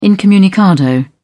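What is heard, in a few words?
"incommunicado", British English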